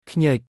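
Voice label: "ឃ្ញើច", Khmer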